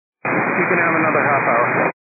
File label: 122 725MHz, scanner radio